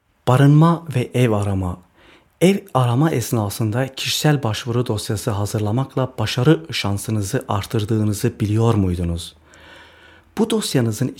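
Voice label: Turkish; wohnen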